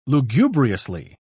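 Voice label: "lugubriously", British English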